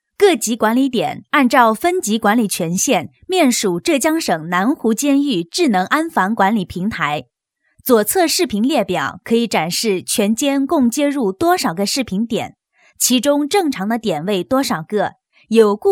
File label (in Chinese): 女 解说语气 课件类严肃安防解说